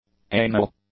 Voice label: "anal", English; adjectives